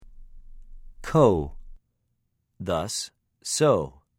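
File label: "כֹּה", Hebrew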